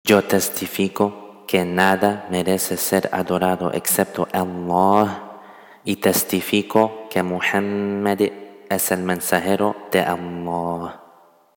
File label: Shahada Spanish